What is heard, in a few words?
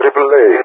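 "AAA", English speech; Allied Flight; DCS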